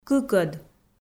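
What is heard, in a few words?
"кыкӧд", Komi-Zyrian, female; kpv audio dict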